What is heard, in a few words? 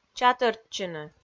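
"чатӧртчыны", Komi, female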